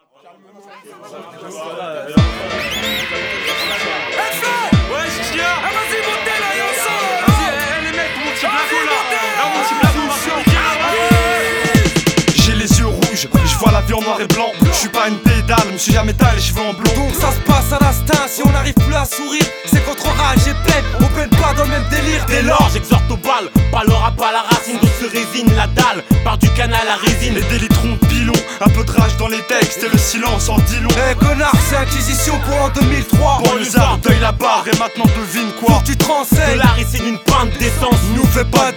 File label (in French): Interlude Micro Ouvert